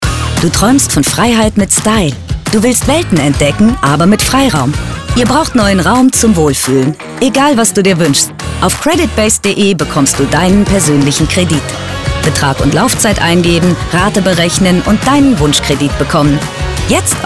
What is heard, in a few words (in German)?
Werbung Kredit